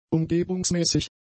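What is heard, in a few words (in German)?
"umgebungsmäßig", German; adjectives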